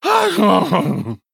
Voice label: Male Fork Death